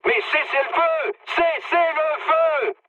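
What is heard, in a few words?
player friendly fire, mood high, French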